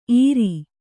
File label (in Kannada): "ಈರಿ", Kannada